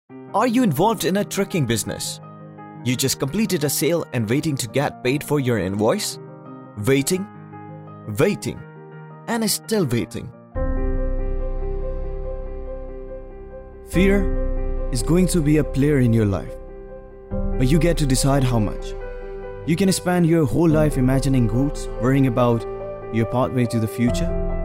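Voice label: Urdu Accented English; GoLocalise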